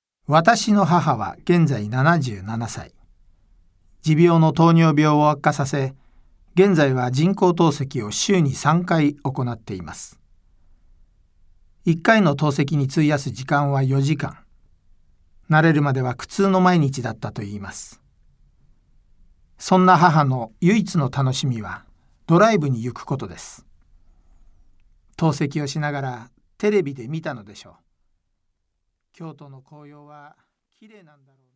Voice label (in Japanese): モノローグ